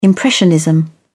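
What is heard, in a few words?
"impressionism", British English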